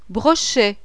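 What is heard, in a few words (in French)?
"brochet", French; D'EAU DOUCE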